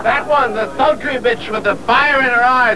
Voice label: SULTRY